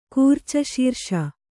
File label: "ಕೂರ್ಚಶೀರ್ಷ", Kannada